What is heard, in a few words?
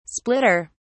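"splitter", British English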